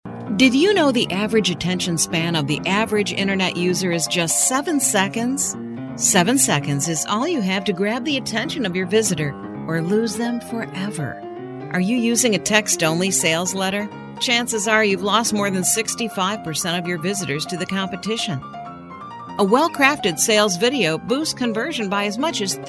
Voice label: explainer sales video